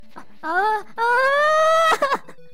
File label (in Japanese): ダメージボイス; 悪魔城ドラキュラ(FC版)